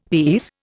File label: "these", Indian